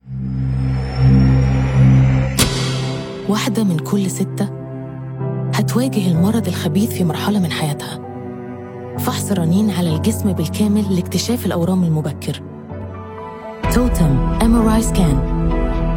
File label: MRI SCAN WOMEN Egyptian Arabic; TOTUM; Commercial- Misr Radiology Center